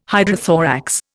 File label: "hydrothorax", Indian